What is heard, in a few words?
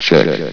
"check", vox